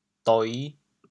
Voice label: "doi5", chaoyang

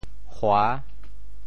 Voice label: "hua6", 禍, Teochew; 祸（禍）; 潮州

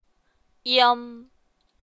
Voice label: "ieum", rhymes

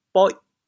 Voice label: "boih8", Teochew, chaoyang

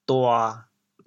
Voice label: "dua7", chaoyang; 潮阳; 大蓬草

